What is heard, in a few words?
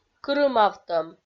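"кырымавтӧм", Komi-Zyrian, female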